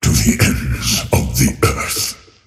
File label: attack pain; dota; earthshaker; arcana